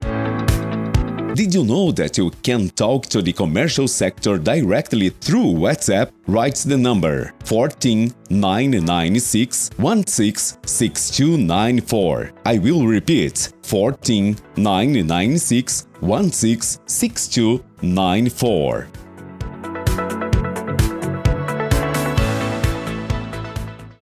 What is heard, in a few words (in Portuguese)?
INGLES, esperas telefonicas